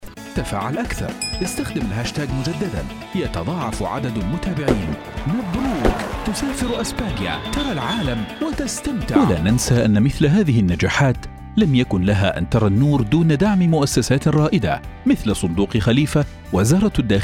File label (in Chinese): 阿拉伯语男 激情语调